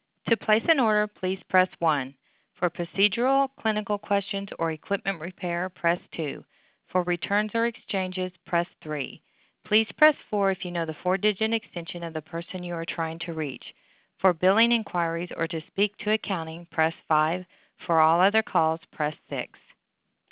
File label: English Main menu